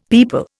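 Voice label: "people", Indian English; Dictionary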